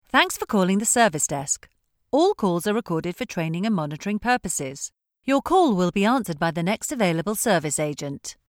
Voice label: Phone service

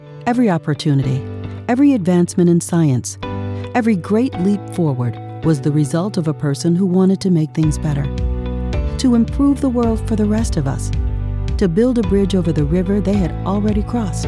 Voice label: Narration Corporate

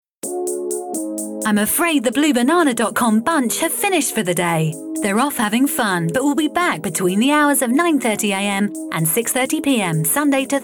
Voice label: IVR out of office

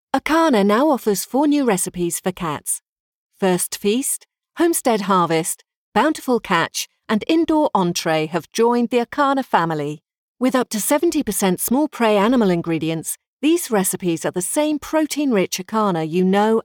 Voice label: TV Web Ad friendly upbeat

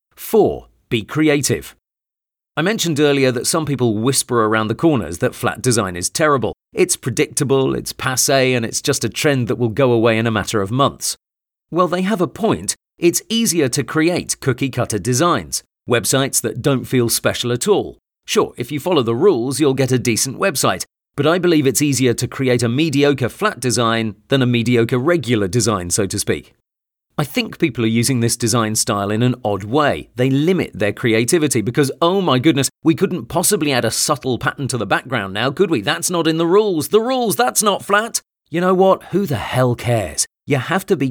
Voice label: Photoshop course, no breaths

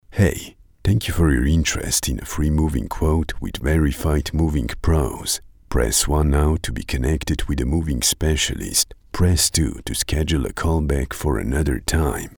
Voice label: IVR phone sales

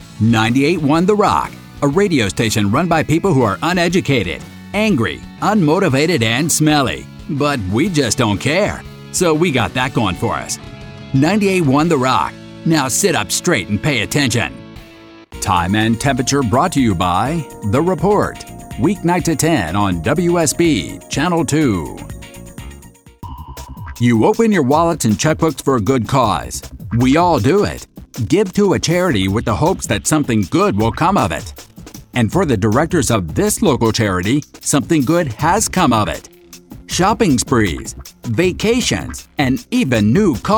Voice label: Affiliate Promo

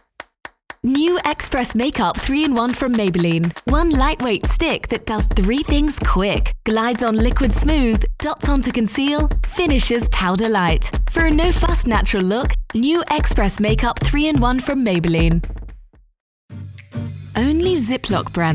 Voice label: British Commercial

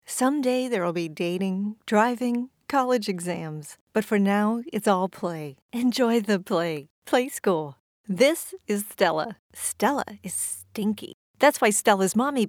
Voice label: Commercial Dry

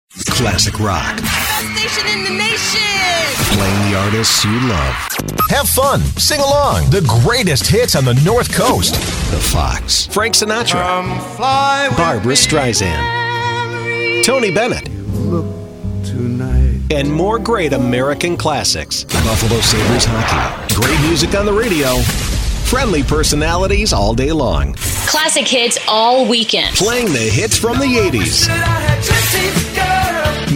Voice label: Imaging

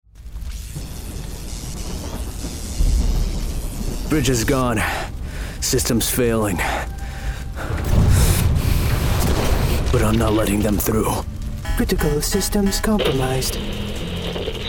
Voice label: Video Game Hero, young adult male voice actor

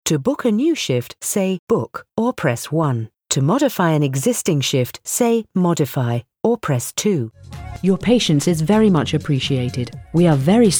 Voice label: IVR Voicemail and On-hold

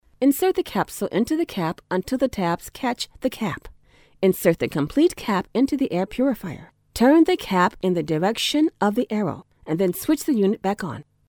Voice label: Corporate

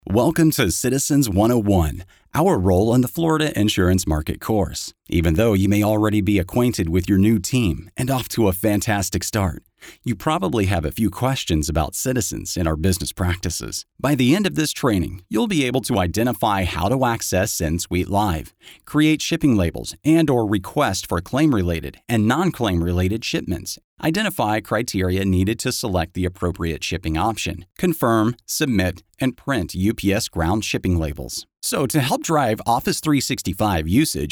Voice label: Corporate VO

